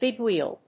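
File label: "big wheel", English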